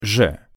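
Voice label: "ж" Russian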